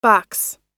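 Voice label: "box", American English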